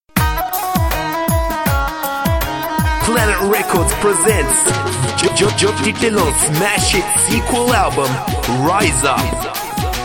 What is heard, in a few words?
Advert; Punjabi